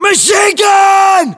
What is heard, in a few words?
US threat mg, battlechatter, english